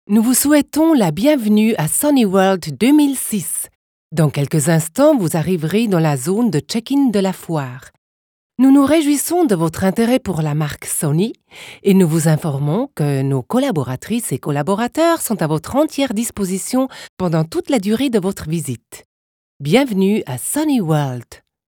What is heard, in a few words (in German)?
Ansage, franz; Sony World 2006